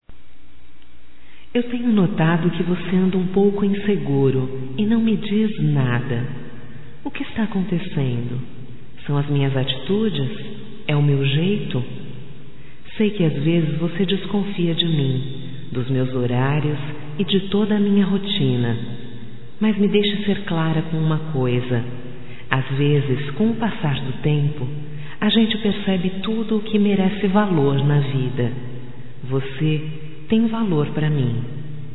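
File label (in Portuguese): voz, Confie Mais Em Mim, female, Telemensagens; Telemensagens 2017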